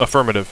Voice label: affirmative, radio bot; cstrike